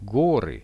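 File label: "mountains", Russian; горы; nature; priroda; RUSSIAN VISUAL DICTIONARY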